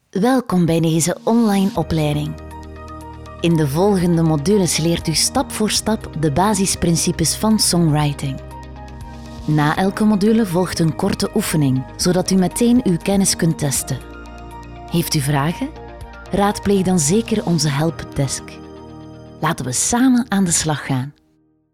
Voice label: flemish e-learning; flemish voice actors